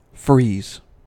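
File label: "freeze", American English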